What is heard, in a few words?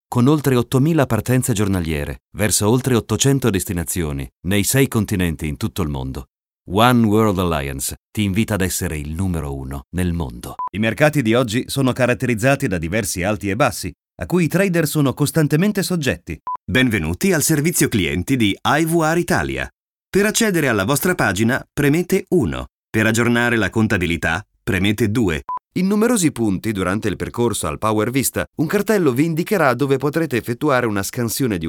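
Italian narration; GoLocalise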